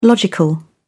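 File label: "logical", British English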